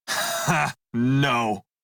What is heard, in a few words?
Crew Reject Male en; Dialog; Warframe; Railjack Crew; Red Veil